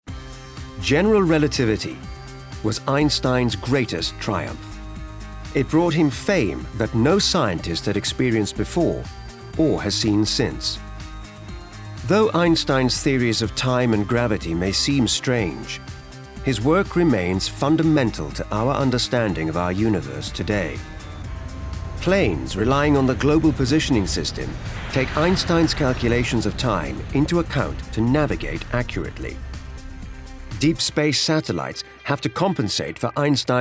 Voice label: Documentary; General Relativity